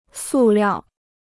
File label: "塑料", Chinese